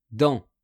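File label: "dans", French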